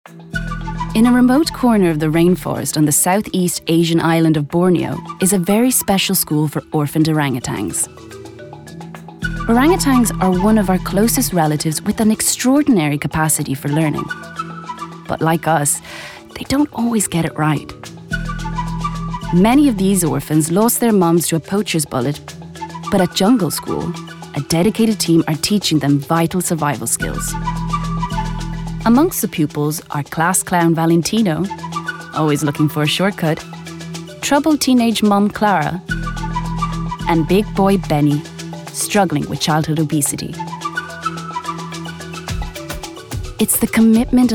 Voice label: female Irish Italian and US American Irish accent documentary